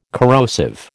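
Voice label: "corrosive", American English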